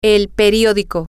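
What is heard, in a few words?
the newspaper, spanish, female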